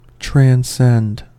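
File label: "transcend", US English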